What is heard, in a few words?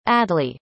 "Adly", arabic; indonesian boy names